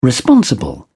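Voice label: "responsible", British English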